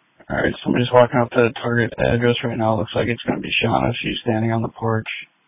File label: Police Tactical Encrypted